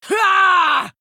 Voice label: Female Night Elf Attack

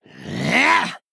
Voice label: Male Goblin Attack

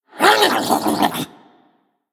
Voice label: Male Murloc Attack

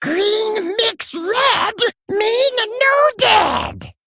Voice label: Male Kobold

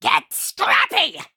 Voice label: Male Imp Attack

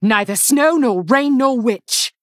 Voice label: Female Human Play

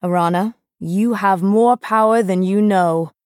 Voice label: Female Night Elf Hero Greetings; Bacon Shop

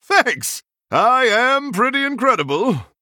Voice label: Male Human Thanks

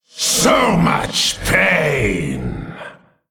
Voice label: Male Giant Attack

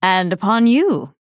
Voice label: Female Dryad MIRROR GREETINGS

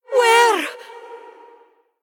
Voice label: Female Draenei Attack

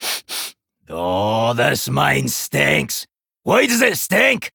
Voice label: Male Dwarf In Game Introduction; Tavish

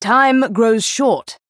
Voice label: Female Night Elf

